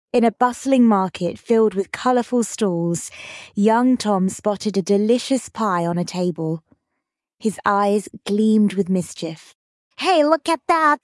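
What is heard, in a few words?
Tom Tom the Pipers Son female british story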